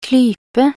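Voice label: "klype", Norwegian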